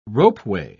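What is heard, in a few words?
"ropeway", British English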